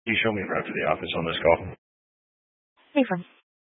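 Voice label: Sheriff Dispatch, Scanner audio recording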